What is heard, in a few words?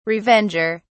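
"revenger", British English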